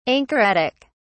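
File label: "anchoretic", English, British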